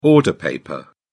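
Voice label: "order paper", English, British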